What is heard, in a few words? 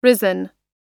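"risen", American English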